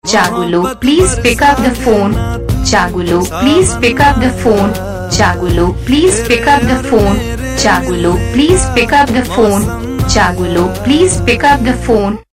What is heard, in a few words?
chagulu pick up the phone saying